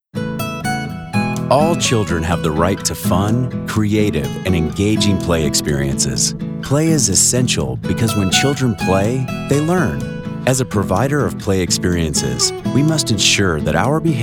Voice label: Corporate Narration